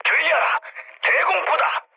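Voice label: Korean damaged AA mood medium; War Thunder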